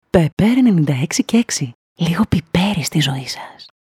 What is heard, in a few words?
RADIO ANNOUNCER GREEK; PEPPER